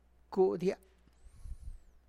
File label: my thigh, Tlacochahuaya Zapotec; kudia; (Spanish) mi muslo